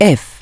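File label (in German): "F geschlossen", German; DISTEFORA Navigation; Mobile Navigator Notebook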